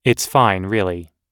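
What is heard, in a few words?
everyday apologizing, American English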